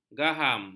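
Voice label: "gaham", Malay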